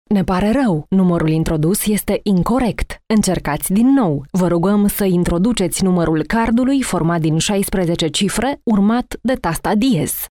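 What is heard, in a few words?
Female Romanian IVR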